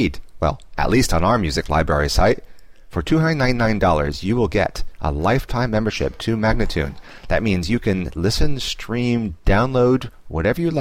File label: 079 D spoken, World Loops; World Loops 2; MagnaLoops